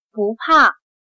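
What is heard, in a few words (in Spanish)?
"不怕", chino mandarin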